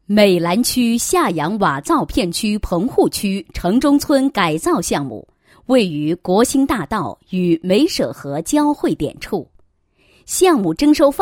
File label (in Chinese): 女 项目解说; 片区棚改项目侧记